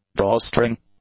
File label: "drawstring", English